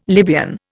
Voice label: "Libyan", Indian